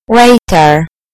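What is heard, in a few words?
"waiter", British English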